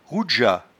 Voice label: "roudgeat", patois jura

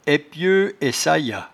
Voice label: "epieutesayats", patois jura

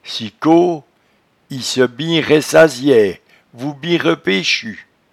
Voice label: "cicop", patois jura

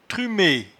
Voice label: "trume", patois jura

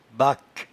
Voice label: "baque", patois jura

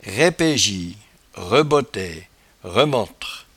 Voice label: "raipejie", patois jura

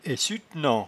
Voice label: "aissuetnant", patois jura

